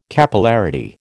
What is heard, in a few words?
"Capillarity", American